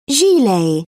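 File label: "gilet", British English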